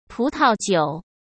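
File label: "葡萄酒", Mandarin Chinese; chinese-flashcards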